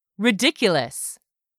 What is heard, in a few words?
"ridiculous", English; 3000 words